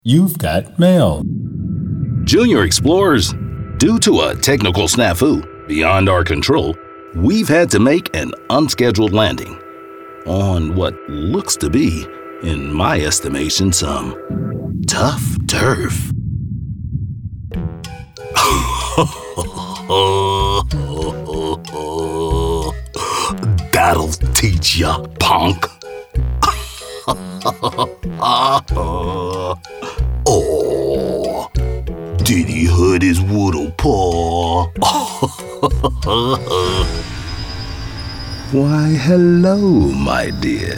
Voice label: Animation; Voice Over for Animation and Cartoon Characters